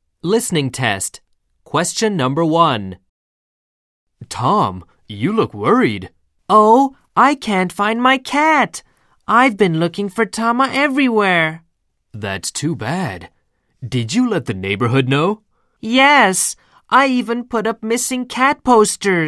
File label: edu